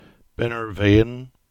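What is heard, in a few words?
"Binnervean", English; place-names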